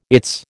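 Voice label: "its", American English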